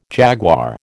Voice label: "jaguar", American